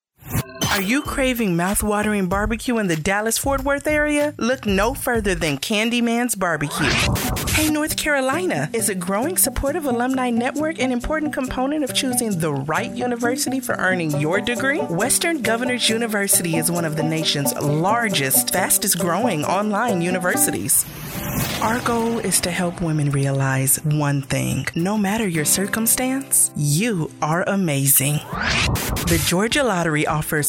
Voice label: Voice over

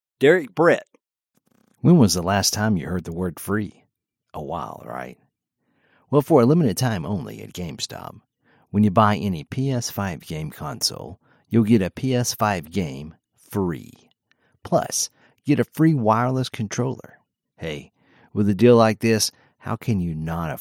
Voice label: Happy Ad-Serious Ad

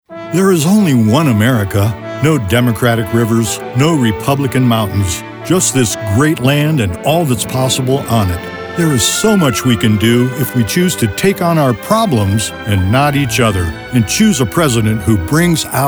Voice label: vo political

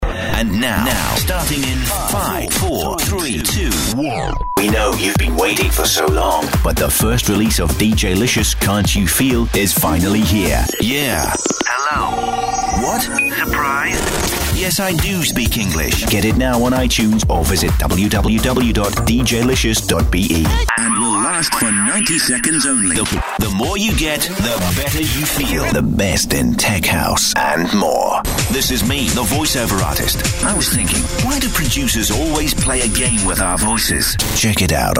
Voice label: Radio Promos

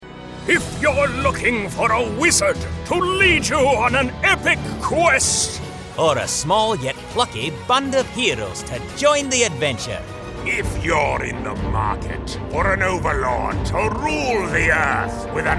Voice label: Character

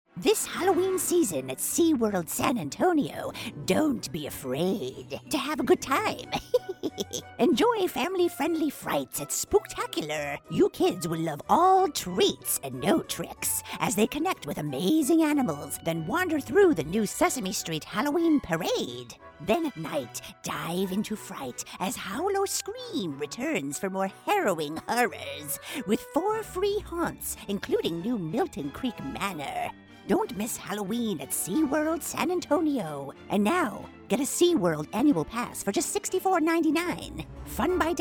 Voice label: Witch; Spooktacular

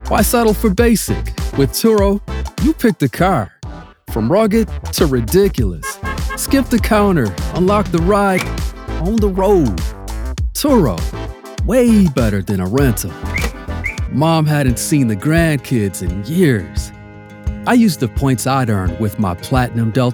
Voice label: Commercial

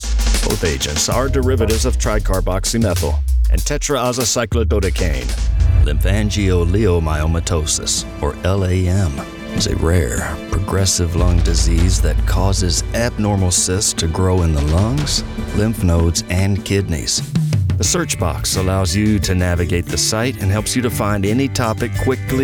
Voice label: MEDICAL Narration Reel

